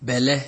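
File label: "belle", Nigerian Pidgin, male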